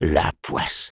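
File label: Sniper negative vocalization, French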